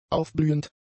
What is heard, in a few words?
"aufblühend", German; adjectives